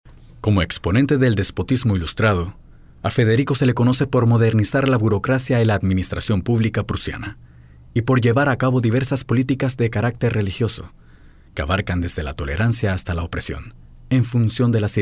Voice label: locutor panama panamanian voiceover; locutor panameño